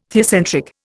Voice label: "theocentric", Indian